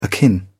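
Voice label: "akin", British English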